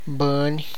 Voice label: "person", tlacochahuaya